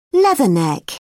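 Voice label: "leatherneck", English, British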